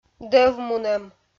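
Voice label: "дӧвмунӧм", Komi, female; kpv audio dict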